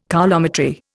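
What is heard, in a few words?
"coulometry", Indian English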